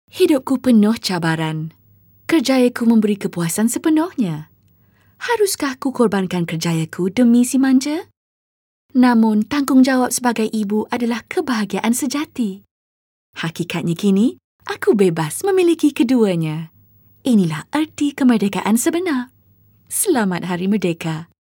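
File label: Malay announcer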